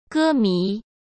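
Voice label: "歌迷", Mandarin Chinese; hsk; chinese-flashcards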